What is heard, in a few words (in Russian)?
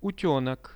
"utenok", Russian; утёнок; birds; RUSSIAN VISUAL DICTIONARY